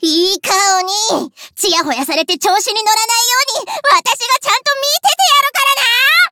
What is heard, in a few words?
anime jap